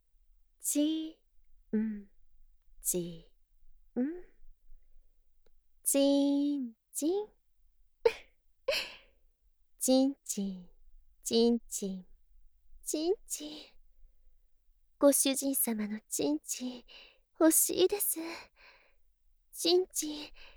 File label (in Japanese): ち○ちん はにかみ